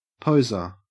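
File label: "poser", Australian English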